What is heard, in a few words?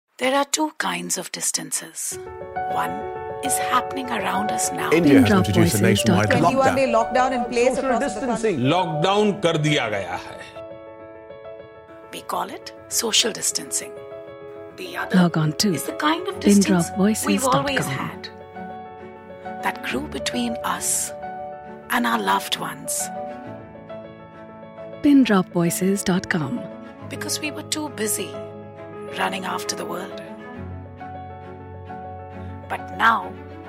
English